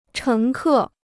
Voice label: "乘客", Chinese

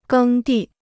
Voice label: "耕地", Chinese

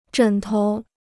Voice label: "枕头", Chinese

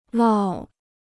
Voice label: "涝", Chinese

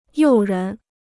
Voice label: "诱人", Chinese

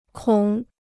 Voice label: "孔", Chinese

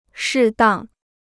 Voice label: "适当", Chinese

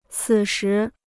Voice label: "此时", Chinese

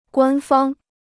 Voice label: "官方", Chinese

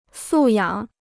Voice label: "素养", Chinese

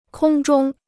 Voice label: "空中", Chinese

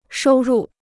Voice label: "收入", Chinese

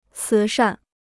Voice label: "慈善", Chinese

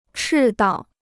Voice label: "赤道", Chinese

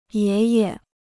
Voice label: "爷爷", Chinese

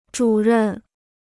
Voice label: "主任", Chinese

